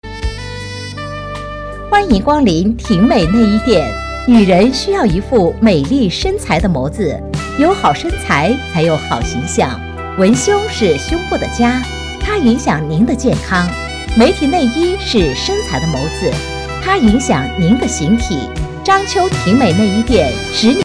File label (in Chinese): 女 内衣店（亲和）